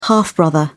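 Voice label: "half-brother", British English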